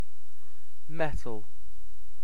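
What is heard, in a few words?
"metal", British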